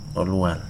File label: "long", Wahgi; lae unitech